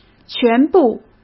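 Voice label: "全部", Mandarin Chinese; chinese flashcards; hsk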